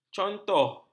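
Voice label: "contoh", Malay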